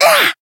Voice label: Voice damaged; yuna

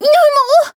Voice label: Voice damaged; myungwha

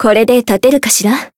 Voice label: heal jp; hisumena

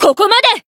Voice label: finish, jp

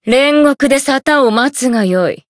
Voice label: win, jp

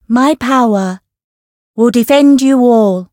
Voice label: Voice variable chant; nagi

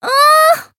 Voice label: Voice damaged

